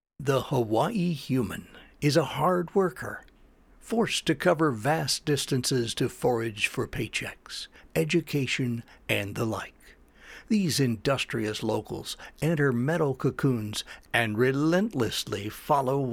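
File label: Radio Commercials, Narration Style Delivery, male voice; Hele Gas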